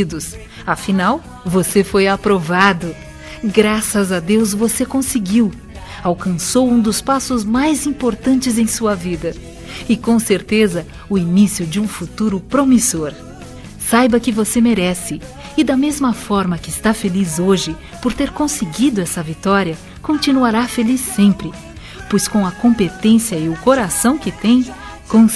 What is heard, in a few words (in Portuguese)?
VESTIBULAR PARA QUEM PASSOU FEM; Telemensagens; Formaturas; Telemensagens 2017